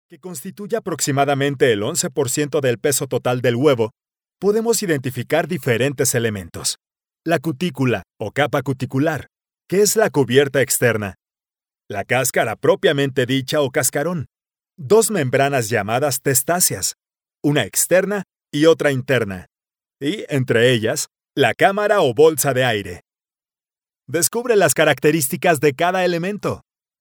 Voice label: spanish latin american e-learning